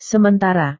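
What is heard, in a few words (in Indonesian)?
"sementara", Indonesian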